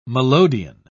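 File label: "melodeon", British English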